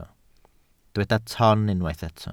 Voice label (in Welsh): "ton", Welsh